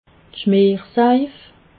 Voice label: "schmersaif", alsace

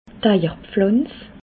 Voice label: "eierpflanz", Alsace

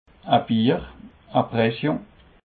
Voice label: "beer", alsace

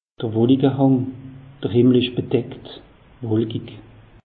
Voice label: "wolike hang", alsace; meteo

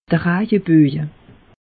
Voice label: "rajeboje", alsace; meteo

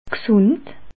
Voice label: "gsund", alsace

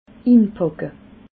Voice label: "inpacke", alsace

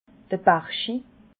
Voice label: "berschi", alsace

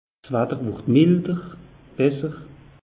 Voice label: "milder", alsace; meteo

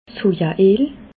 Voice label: "soja eel", alsace

